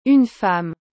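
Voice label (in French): "Une femme", French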